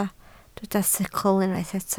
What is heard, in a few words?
"sicl", Welsh